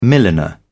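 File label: "milliner", British English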